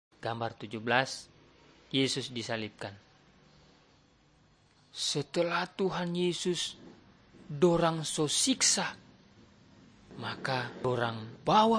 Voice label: malay manado; good news

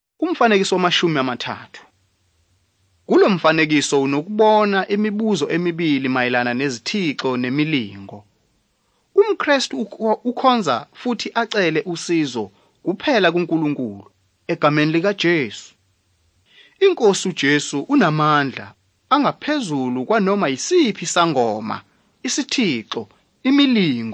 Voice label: zulu bible; good news

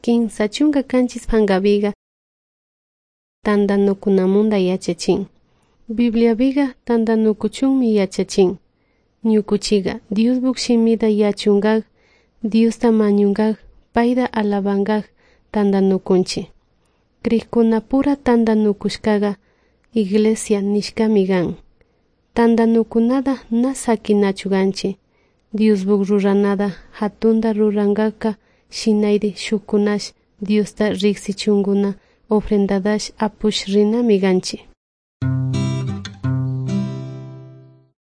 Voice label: good news quichua de salasaca

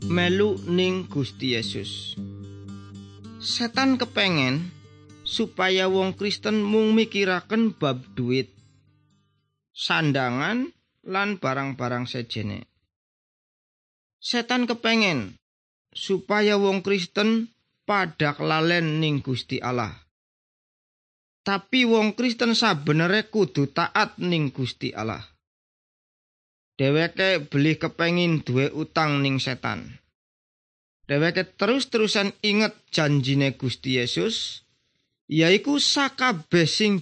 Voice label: good news jawa indramayu, javanese bible

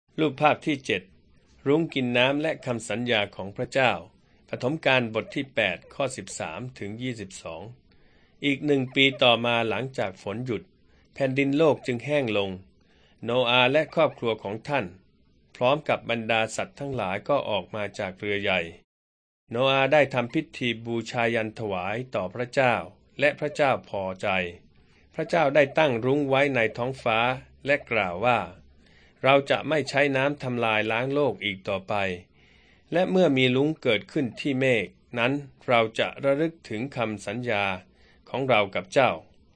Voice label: beginning with god thai central

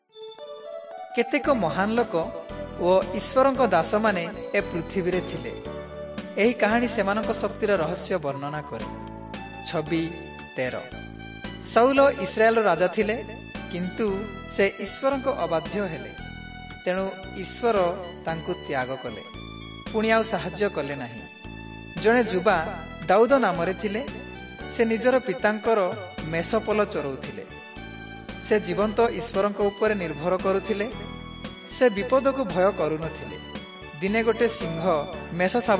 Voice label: southern oriya; servants of god

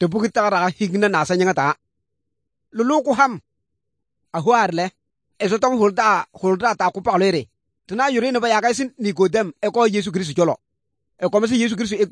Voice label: good news, kabiye bible

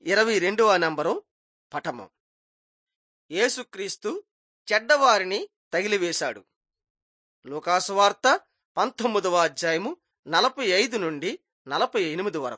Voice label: telugu bangladesh

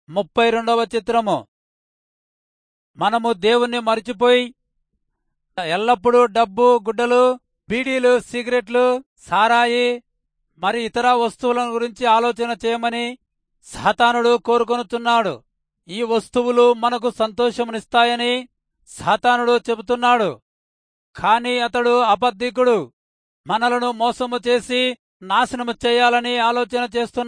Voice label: telugu rayalseema; good news

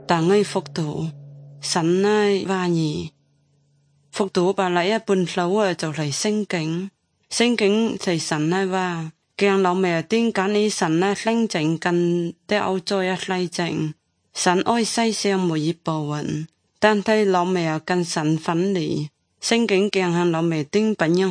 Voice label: good news zhuang guigang guiping; zhuang yongbei; bible

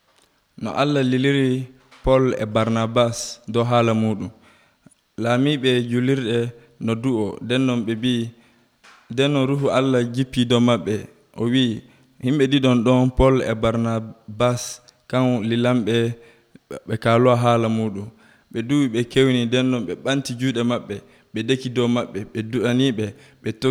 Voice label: western niger fulfulde, drama; fulfulde adamawa; bible stories; sent premiere missionnaire de paul et barnabas; abc nt drama

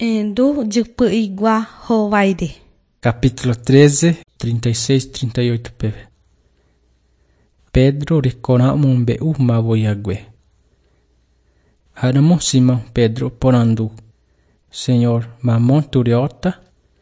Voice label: john guarani mbya, bible

